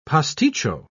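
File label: "pasticcio", British English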